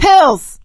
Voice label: spot pills, survivor voice; source engine; left 4 dead 2; producer

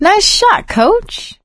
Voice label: nice shot coach, survivor voice; source engine; left 4 dead 2; producer

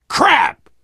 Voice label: swear, player survivor voice biker

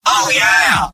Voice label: scout cheers; video games; source engine; tf; mvm

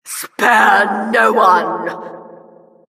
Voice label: announcer, vo, killstreak

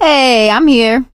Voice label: scenario join, survivor voice; source engine; left 4 dead 2; producer

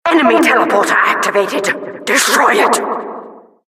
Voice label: announcer tele activated; mvm; eng; source engine; tf

